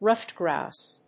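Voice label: "ruffed grouse", English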